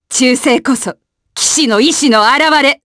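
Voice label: vox Japanese; Demia; kingsraid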